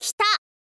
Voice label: "kita", girl; voice